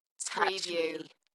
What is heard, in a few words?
UK female, Dalekness; Touch me; beanstalk audio